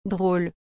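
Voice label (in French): "drole", French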